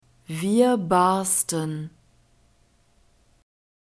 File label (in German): "wir barsten", German; verbs past tense irregular